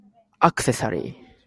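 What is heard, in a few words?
"accessory", Japanese, male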